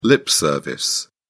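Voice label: "lip service", British English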